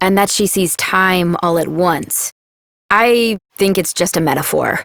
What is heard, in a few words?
Operator Voice, en; New War; Ascend Tower